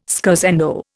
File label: "scherzando", Indian